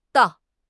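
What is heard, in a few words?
"dao4", Chinese; HSK; HSK2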